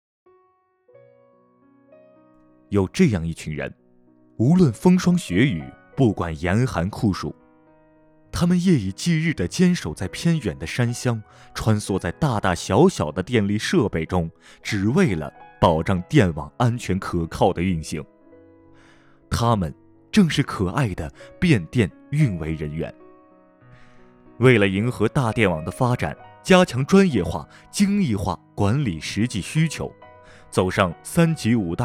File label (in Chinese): 变电运维人员舒缓沉稳男